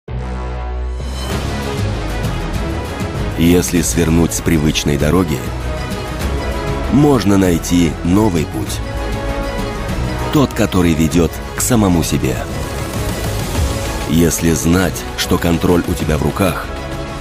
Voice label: commercial

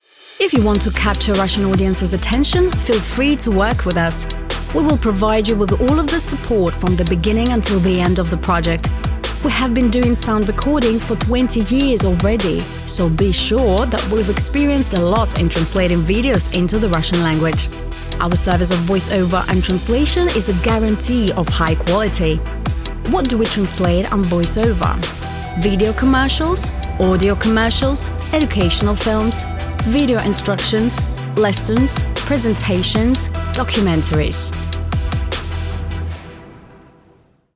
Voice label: woman british

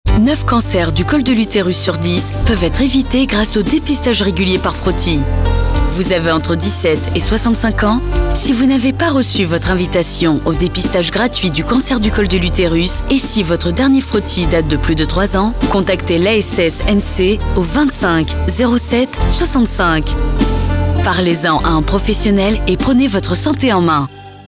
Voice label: french voice

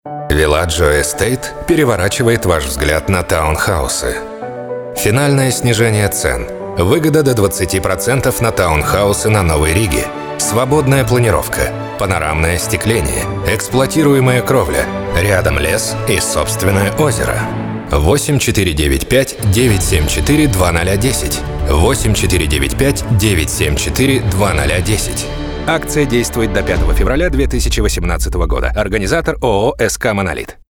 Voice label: radio

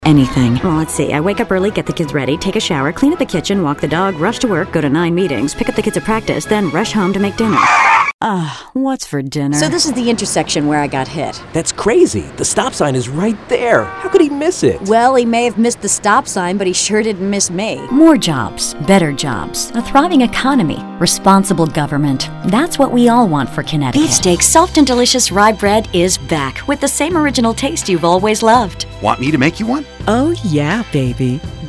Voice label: woman american

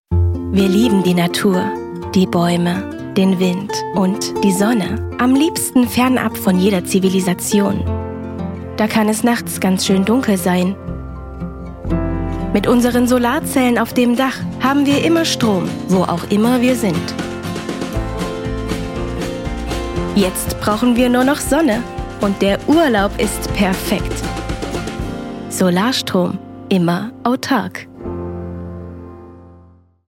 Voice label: Female German

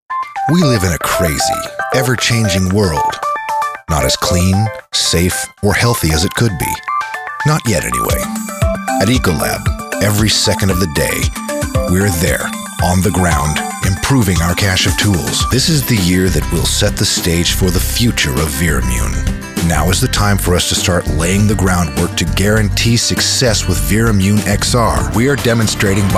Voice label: man american

